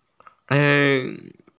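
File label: "lei4", Mandarin Chinese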